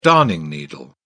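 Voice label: "darning needle", English, British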